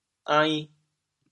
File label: "ain2", Teochew, chaoyang; 白目眼赶人在跳罾; 潮阳; 不懂装懂